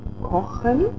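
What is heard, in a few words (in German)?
"kochen", German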